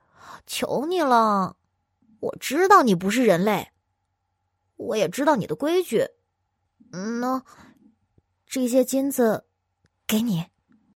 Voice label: 女 动画角色 憨厚少女; 可爱

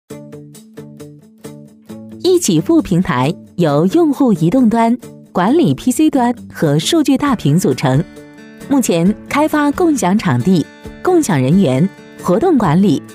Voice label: 女 产品介绍 平台; E企富平台

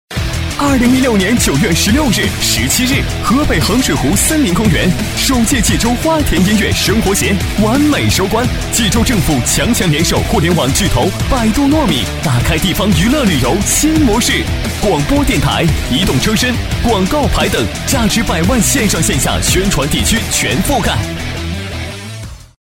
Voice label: 男 广告配音 年轻活泼; 游乐园; 厚重有力; 轻松活泼

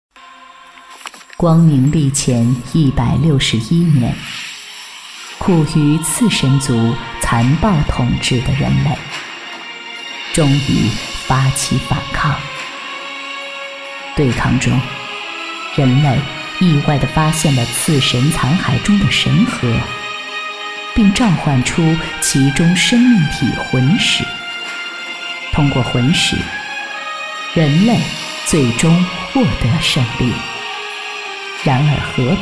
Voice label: 女 游戏 CG 游戏旁白 老年音; 女21-游戏CG-游戏旁白-老年音; 女21-大气知性; 成熟知性

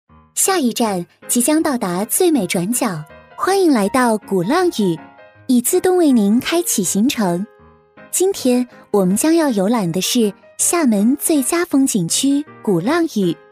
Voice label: 女 导游词 小小导游 甜美亲切

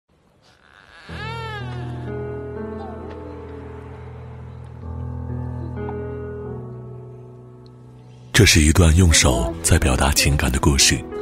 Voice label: 男 独白 深情温柔; 电视台声; 质感磁性; 牵手的故事

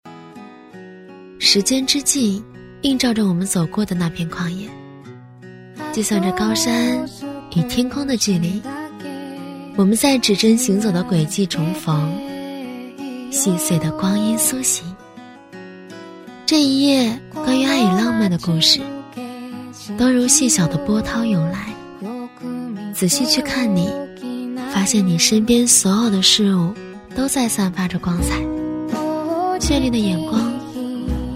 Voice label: 女-旅拍-年轻温暖自然; 女B15-甜美亲和; 年轻舒缓

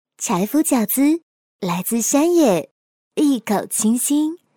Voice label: 女, 模仿, 甜美; 可爱; 林志玲

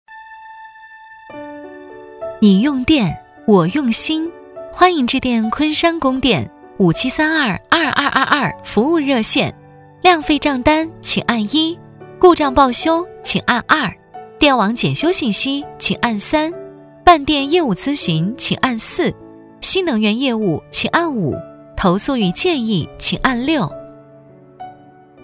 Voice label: 女, 电话彩铃, 供电, 亲切温和; 昆山供电; 质感知性; 温柔知性

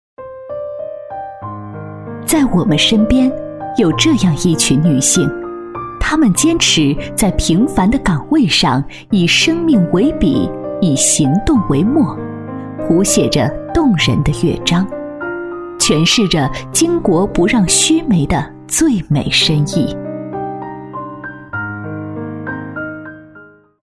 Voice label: 女 公益广告 三八节 温和; 女21-大气知性 成熟知性

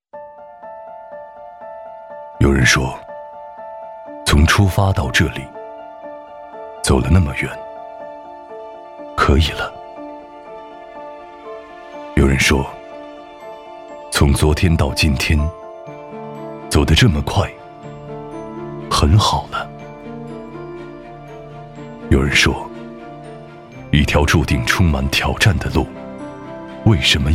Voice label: 男 微电影 磁性低沉

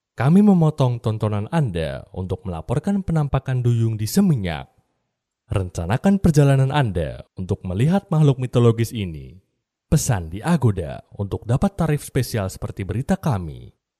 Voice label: 印尼语男声

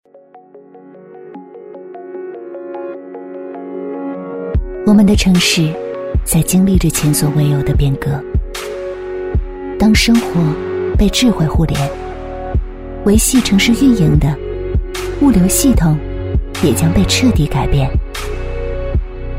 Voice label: 女 微电影旁白 温暖讲述; 女21-微电影旁白《江铃&中通》-温暖讲述; 女21-大气知性; 温柔知性

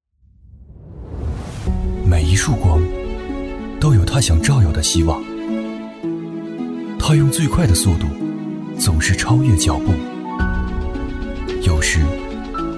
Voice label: 男 科技感; 男C16-科技感-每一束光; 男C16-年轻质感; 低沉走心

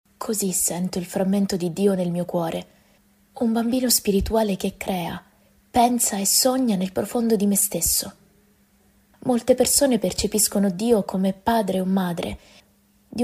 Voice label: 意大利语女声

